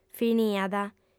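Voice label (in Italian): "finiat", lingua sarda, female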